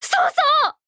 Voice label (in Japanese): VO jp